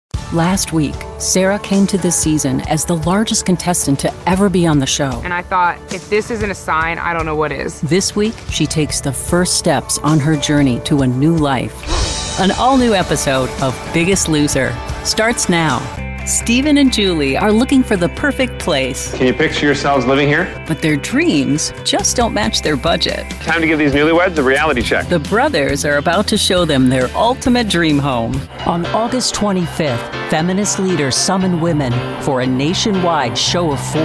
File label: Promo